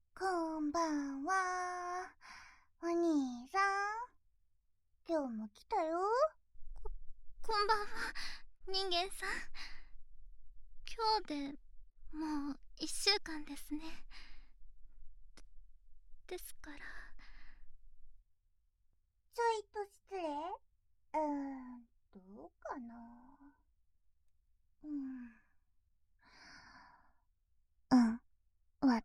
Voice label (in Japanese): ボイスのみ; 姉妹でしこ♪しこ♪ しこ♪